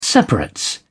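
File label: "separates", British English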